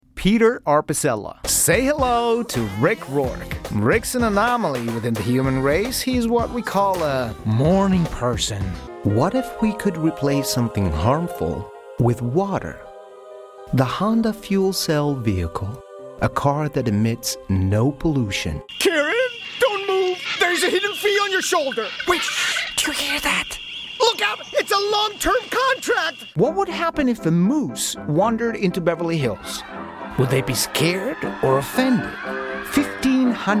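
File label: Commercial voice over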